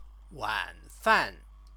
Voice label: "wanfan", Chinese; 晚 饭; evening meal; noun